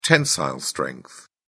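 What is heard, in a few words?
"tensile strength", British English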